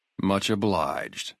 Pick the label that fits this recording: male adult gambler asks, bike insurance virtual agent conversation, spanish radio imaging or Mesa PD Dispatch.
male adult gambler asks